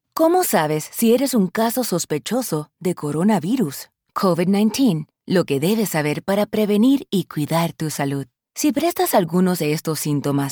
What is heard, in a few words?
Spanish Explainer Videos